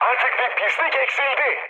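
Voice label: Turkish player killed enemy, mood med, speech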